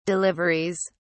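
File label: "deliveries", British English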